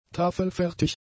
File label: "tafelfertig", German; adjectives